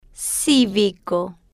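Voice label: "civico", Spanish, female, slow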